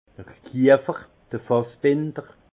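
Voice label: "kiefer", Alsatian, Haut Rhin, tonnelier; vin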